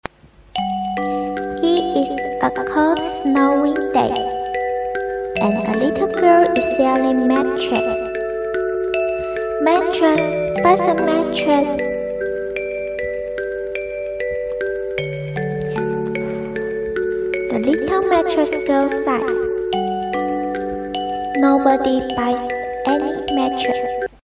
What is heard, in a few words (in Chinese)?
英语版; 卖火柴的小女孩